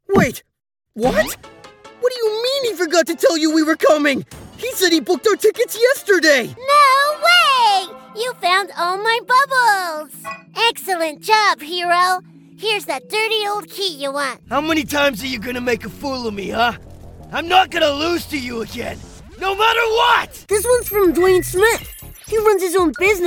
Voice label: male and transgender male canadian multiple accents character